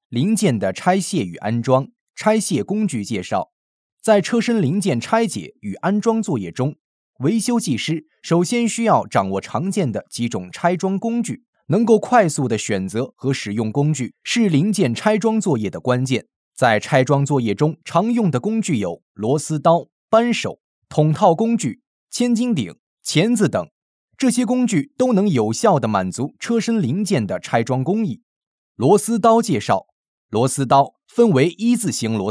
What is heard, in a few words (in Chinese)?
课件 操作 指南